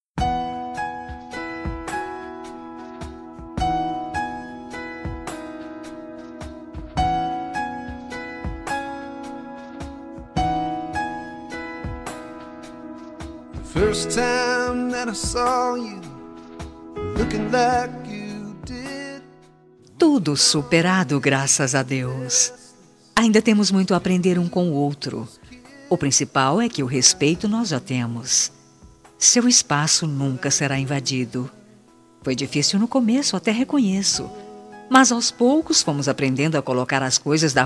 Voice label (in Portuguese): Telemensagem Aniversário Enteado, Voz Feminina